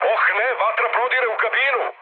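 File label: Serbian on fire mood med, speech; War Thunder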